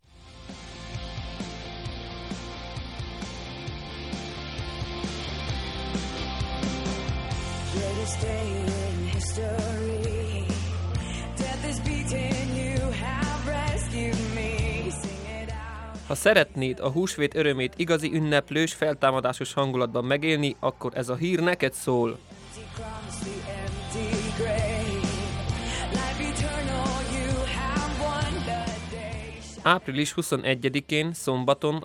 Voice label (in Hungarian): Reklam; Resurrexit